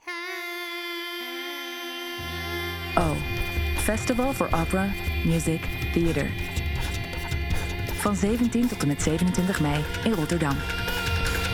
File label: radiocommercial